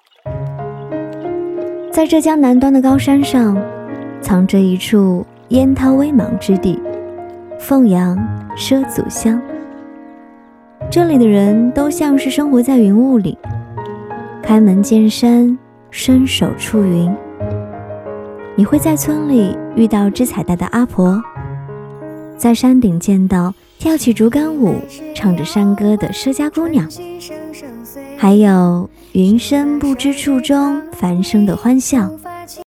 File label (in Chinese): B女 短视频 旅游; 抖音旅游